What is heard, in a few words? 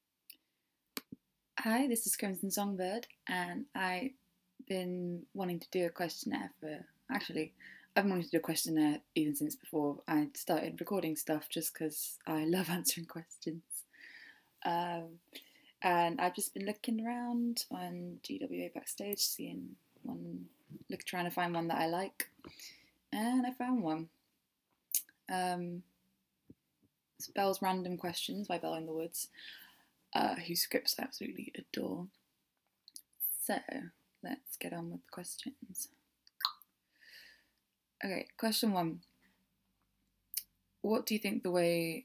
[F] [Mumbling][Lots of Ums][Coughing][Kinda raspy voic; My Take on Belle's Random Questions; Kinda raspy voice; Sorry about that